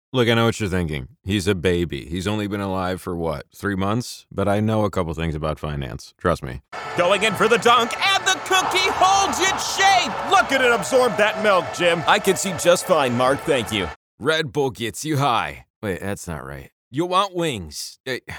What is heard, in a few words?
character